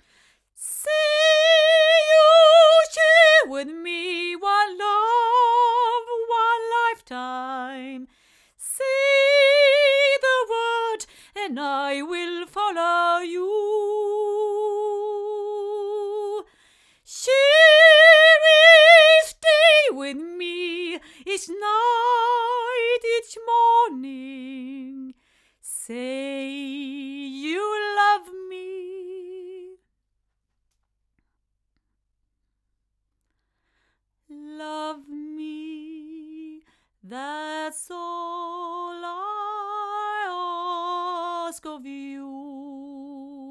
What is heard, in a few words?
ADK A51 Model S female